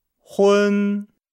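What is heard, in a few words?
"hun", Chinese; Nihao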